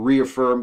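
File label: "reaffirm", US English; pronunciations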